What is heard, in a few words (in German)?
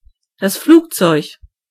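"plane", German; gcompris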